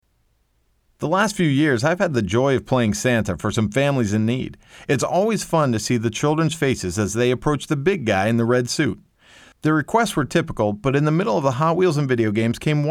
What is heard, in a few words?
dry; Playing Santa